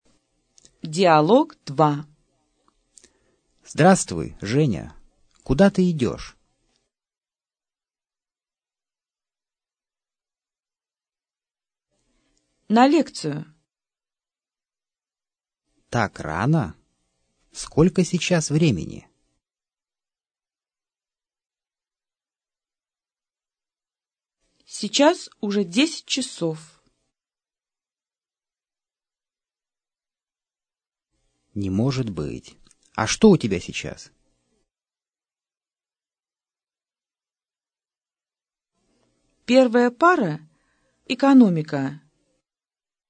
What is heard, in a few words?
dialog, russian